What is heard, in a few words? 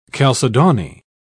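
"calcedony", English, British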